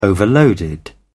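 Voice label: "overloaded", British English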